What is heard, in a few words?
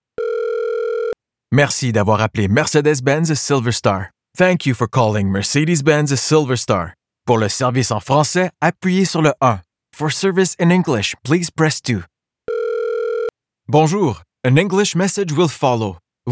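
Phone Messages IVR French Canadian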